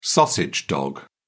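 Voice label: "sausage dog", English, British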